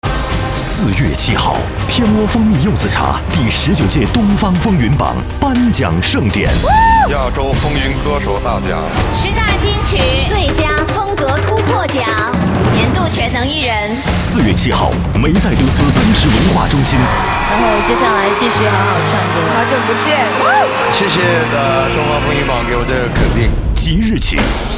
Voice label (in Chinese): 男, 国语男声配音; 大师级录音